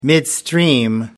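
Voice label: "midstream", British English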